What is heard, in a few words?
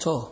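"toh", Nigerian Pidgin, male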